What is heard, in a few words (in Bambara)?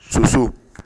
"soso", Bambara; animaux; Mots Bambara